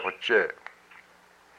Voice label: "my mind", hupa